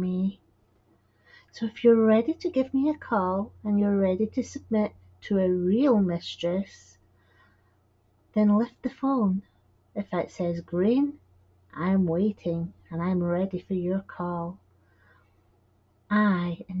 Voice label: submissive chat